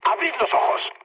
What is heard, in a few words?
player friendly fire, mood low, speech